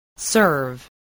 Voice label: "serve", spelling